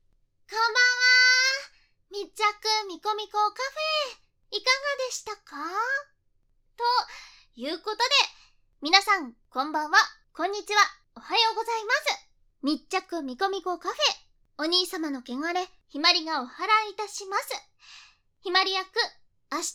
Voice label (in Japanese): フリートーク, ASMR; バイノーラル; 密着みこみこカフェ